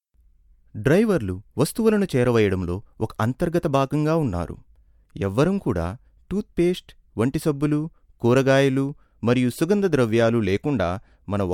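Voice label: narration telugu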